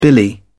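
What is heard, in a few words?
"billy", English, uk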